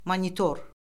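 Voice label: "monitor", Azerbaijani; Orfoqrafiya Lüğəti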